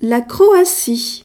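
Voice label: "croatia", French; gcompris-data; geography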